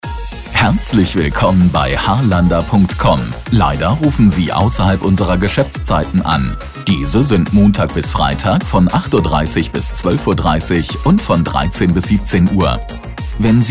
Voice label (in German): Ansage